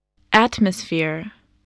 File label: "atmosphere", English